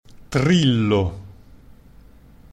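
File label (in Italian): "trillo", Italian pronunciation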